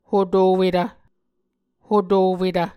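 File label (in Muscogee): "hotowetv", Muscogee word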